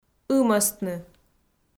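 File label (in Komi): "ымӧстны", Komi, female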